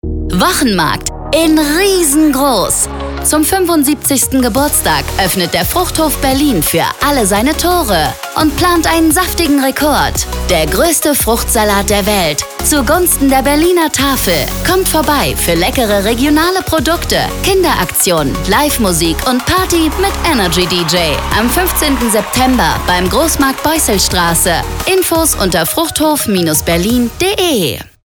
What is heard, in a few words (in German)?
Radiospot; Fruchthof Berlin